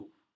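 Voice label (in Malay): "beladau", Malay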